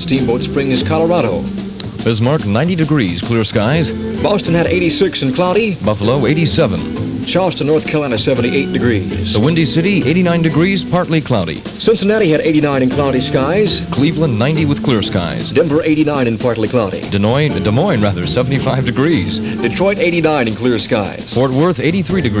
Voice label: Reads Stateside Weather; Panorama